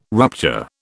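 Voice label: "rupture", British English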